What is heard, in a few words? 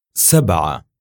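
numbers "sab3a", Arabic; raqm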